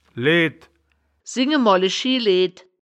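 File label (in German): "leed", wittlicher woerterbuch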